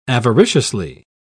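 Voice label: "avariciously", English, British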